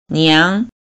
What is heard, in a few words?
"niang2", pinyin